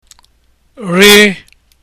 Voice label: r letter, igbo